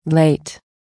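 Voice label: "late", English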